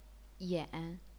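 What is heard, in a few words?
"yan", chinese pinyin, third tone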